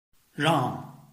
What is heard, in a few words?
"rang4", Chinese